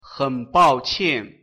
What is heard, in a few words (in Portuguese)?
pronuncia mandarim desculpe